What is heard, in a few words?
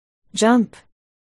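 "jump", spoken word, articulation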